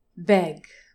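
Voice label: "beg", English, DRESS /e/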